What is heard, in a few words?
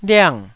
"liang4", Taiwanese syllable